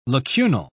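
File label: "lacunal", British English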